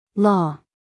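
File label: "law", American English, female; everyone-can-use-english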